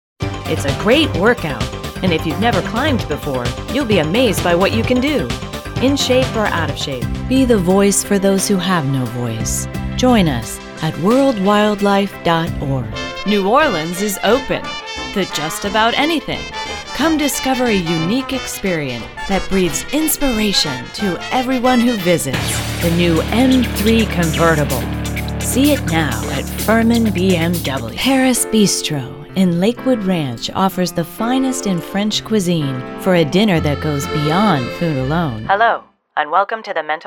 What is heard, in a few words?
commercial